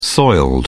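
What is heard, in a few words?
"soiled", English, British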